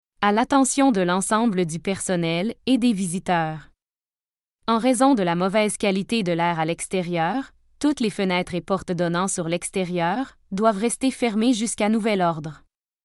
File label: air Quality Alert, voice announcement